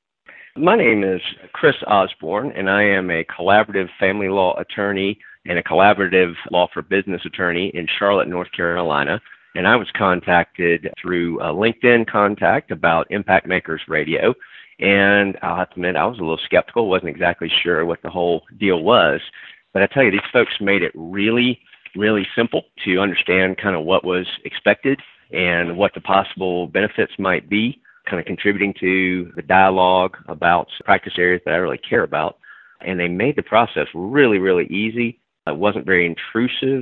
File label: Testimonial; Impact Makers Radio